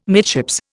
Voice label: "midships", Indian